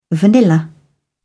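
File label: "vanilla", British English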